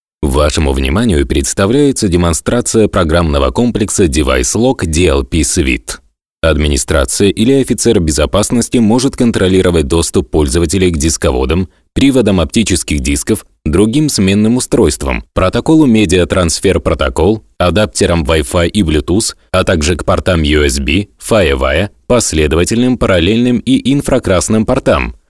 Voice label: russian narration